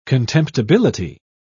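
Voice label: "contemptibility", British English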